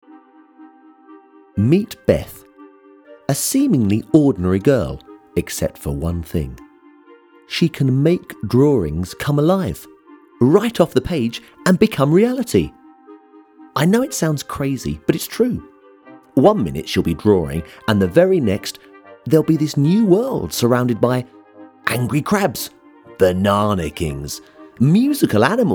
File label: Children Story